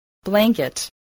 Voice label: "blanket", spelling

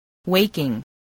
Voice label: "waken", spelling

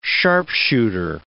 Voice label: "sharpshooter", English

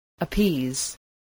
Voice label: "appease", English

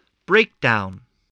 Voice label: "breakdown", spelling

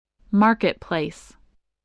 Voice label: "marketplace", spelling voice

